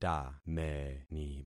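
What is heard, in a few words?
"tameni", concatenated syllables; Affixing experiment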